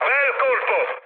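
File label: Italian bomb success mood med, speech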